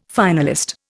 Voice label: "finalist", Indian

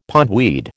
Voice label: "pondweed", American

